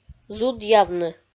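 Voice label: "зудъявны", Komi-Zyrian, female; audio dict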